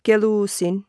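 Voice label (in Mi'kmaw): "kelusin", Mi'kmaq; wowkwis aqq kaqaquj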